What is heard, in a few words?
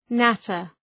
natter